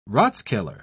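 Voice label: "rathskeller", British English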